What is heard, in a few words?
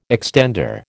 "extender", American